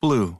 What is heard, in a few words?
normal blue, American IPA phonic